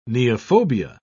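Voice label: "neophobia", British English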